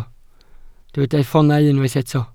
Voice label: "ffoonau", Welsh